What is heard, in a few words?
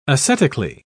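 "ascetically", British English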